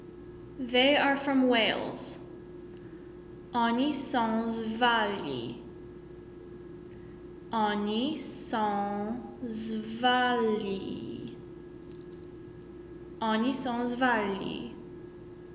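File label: Polish They are from Wales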